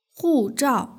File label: "huzhao", Chinese; hsk6